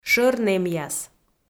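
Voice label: "шӧр нэмъяс", Komi, female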